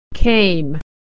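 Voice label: vowel ey "came", pronunciation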